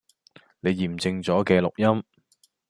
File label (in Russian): Голоса, Гонконгский